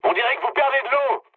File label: damaged water mood med, speech fr; War Thunder